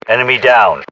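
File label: inform killfirm generic, British; battlechatter; localized english; uk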